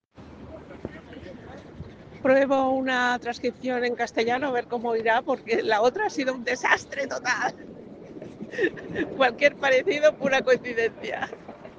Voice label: Voice message